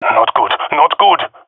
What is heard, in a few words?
scared, jungle male epic; Source Model Packs; Counter Strike Model Pack; CS2